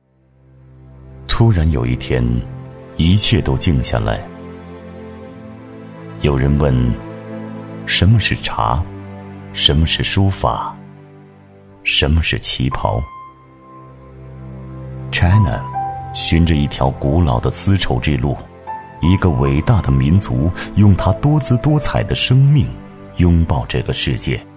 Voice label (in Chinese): 男国 纪录片 人文历史; 旗袍